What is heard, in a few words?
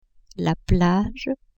"plage", French; campagne